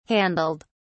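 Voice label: "handled", British English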